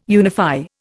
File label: "unify", Indian